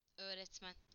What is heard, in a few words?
teacher (male); PictureDictionary